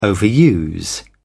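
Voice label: "overuse", English, British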